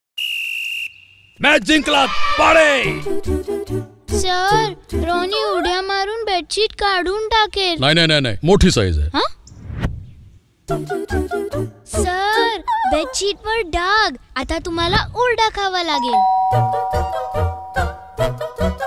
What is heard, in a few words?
Marathi TVC female character; Bedsheets; Last Female Character VO; Wellspun